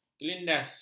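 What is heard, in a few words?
"kelindas", Malay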